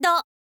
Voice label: "do", girl; voice